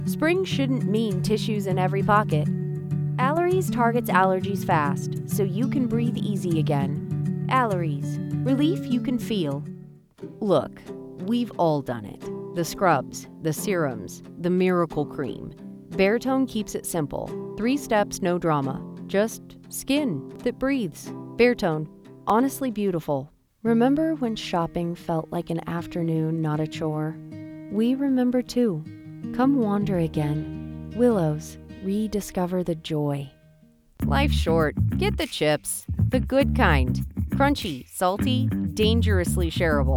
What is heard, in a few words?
Commercial